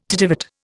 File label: "tittivate", Indian